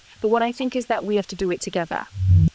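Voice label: voice acting, es, female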